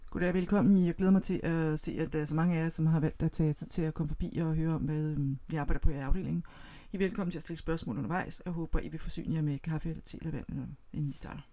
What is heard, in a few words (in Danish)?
Taler hurtigt og oh